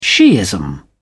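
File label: "shiism", British English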